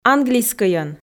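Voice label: "английскӧйӧн", Komi, female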